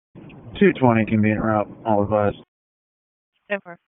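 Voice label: Police Northeast District Disp, radio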